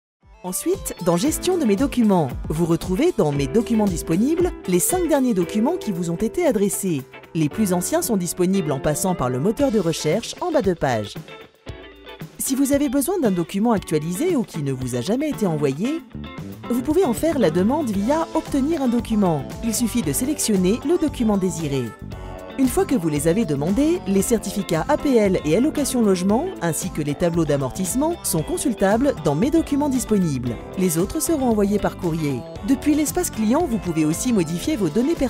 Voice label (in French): TUTO Naturel souriant explicatif; CREDIT FONCIER